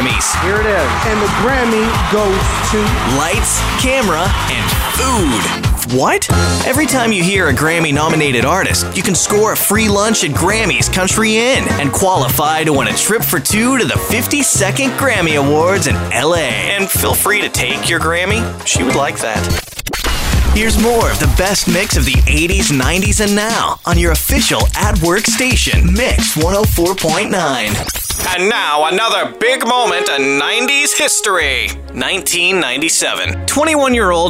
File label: men radio imaging; Young. Real. Conversational. Guy next door. Down to earth. Non-announcery. Not 'selly'.